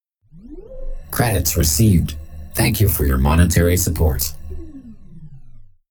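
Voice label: tip credits received male grateful; spark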